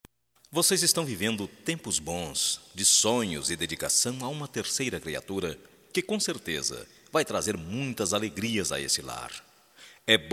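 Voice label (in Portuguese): GRAVIDEZ PARA CASAL, Masculino; Telemensagens; Só Voz; Telemensagens 2017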